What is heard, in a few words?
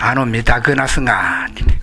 "midagunasu", minamiyamagata